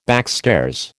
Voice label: "backstairs", American